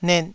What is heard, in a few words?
"net2", Taiwanese